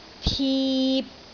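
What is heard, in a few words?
"tip3", Cantonese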